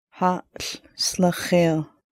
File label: good day, Lushootseed